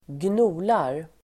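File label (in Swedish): "gnolar", Swedish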